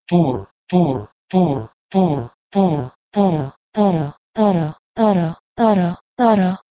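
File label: Proto-Indo-European "tor" to Nepali "tara"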